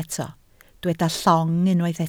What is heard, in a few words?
"llong", Welsh